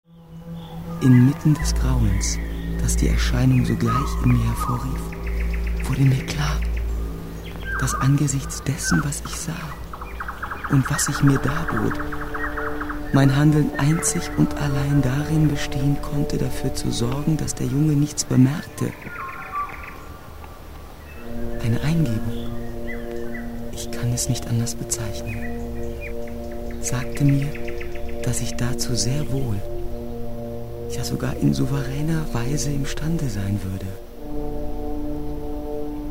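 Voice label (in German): atmosphärisch ängstlich; Muttersprache Deutsch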